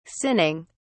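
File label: "sinning", British English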